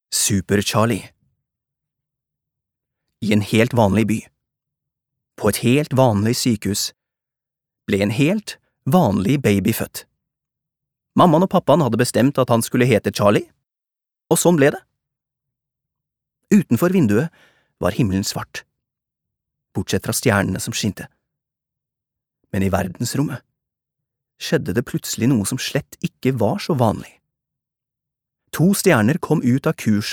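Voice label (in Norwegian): fortellende, Norwegian; mann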